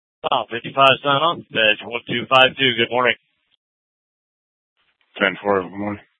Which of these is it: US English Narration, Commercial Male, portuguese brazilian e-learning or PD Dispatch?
PD Dispatch